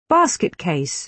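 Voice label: "basket case", British English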